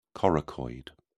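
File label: "coracoid", British English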